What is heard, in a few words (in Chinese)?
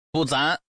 buzhang, changsha man; mahjong paohuzi